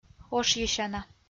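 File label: "ошйысяна", Komi, female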